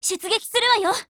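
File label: ATTACK, Japanese; stg940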